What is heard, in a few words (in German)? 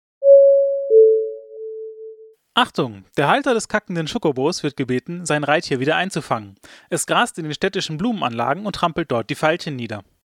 durchsage